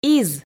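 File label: "из", Komi, female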